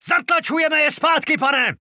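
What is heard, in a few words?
Czech positive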